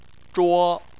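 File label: "zhuo" Chinese, first tone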